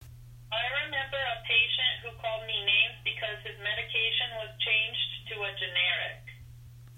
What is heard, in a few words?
phone